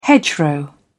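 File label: "hedgerow", British English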